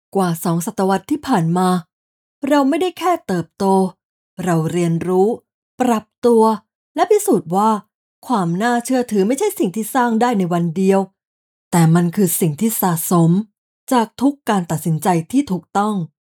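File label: Corporate Intro, Female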